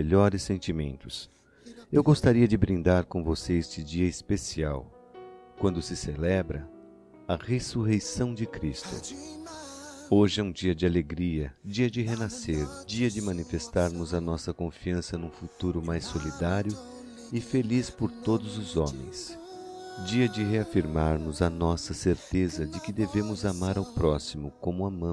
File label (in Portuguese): PÁSCOA NEUTRA M